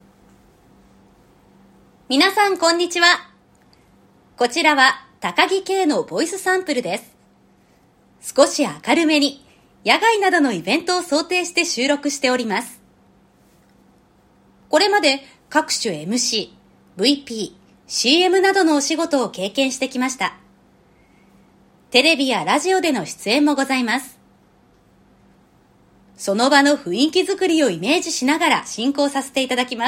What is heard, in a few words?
joy